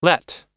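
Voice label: "let", US English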